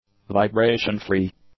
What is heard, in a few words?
"vibration-free", English; adjectives